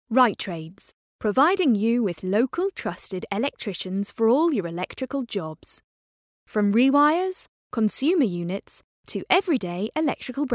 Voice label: dry studio